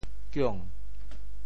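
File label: "kiong2", Teochew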